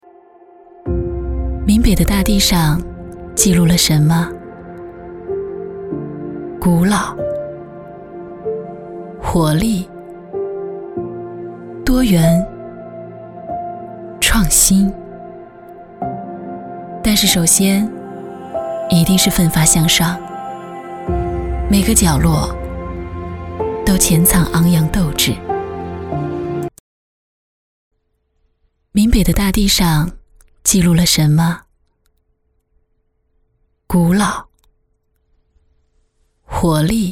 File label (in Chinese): 旁白温柔